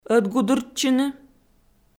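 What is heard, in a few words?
"ӧтгудыртчыны", Komi, female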